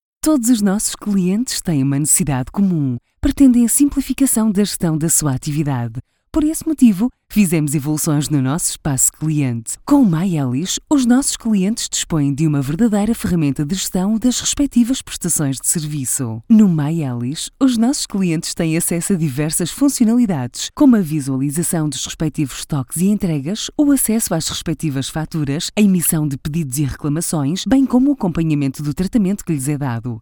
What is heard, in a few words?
portuguese documentaries